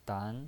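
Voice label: "dan", Mandarin Chinese pinyin voice, second tone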